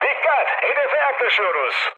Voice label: near waypoint, mood high, speech tur; War Thunder